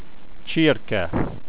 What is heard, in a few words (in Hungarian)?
poultry, hungarian